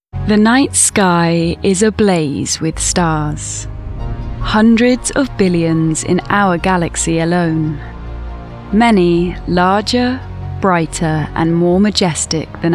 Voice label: Narration